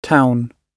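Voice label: "town", British English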